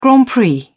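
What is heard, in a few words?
"grand prix", English, British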